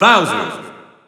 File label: French Announcer; SSBU